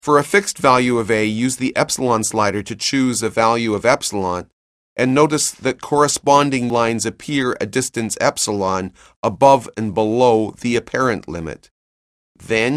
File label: narration; CALCULUS 7E; cengage